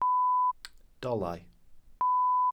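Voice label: "dolau", Welsh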